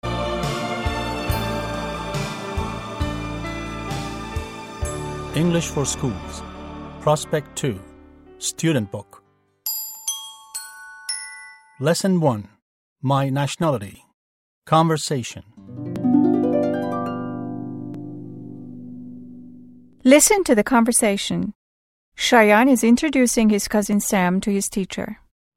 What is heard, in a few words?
english conversation